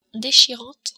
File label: "dechirante", french